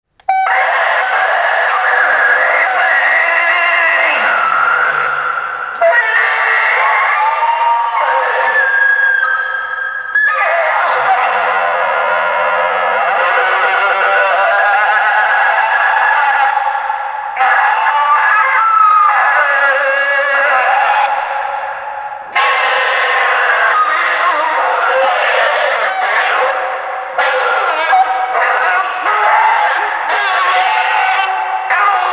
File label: Megaphone Cri